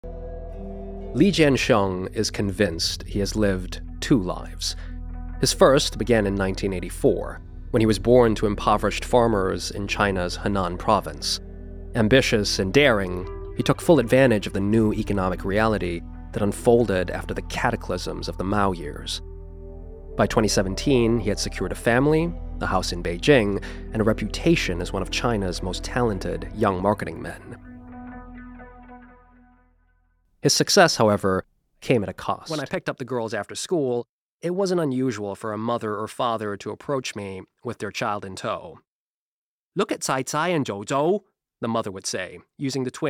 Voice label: Narration Reel; Gatoraid